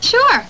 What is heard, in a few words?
sure, girl; Voice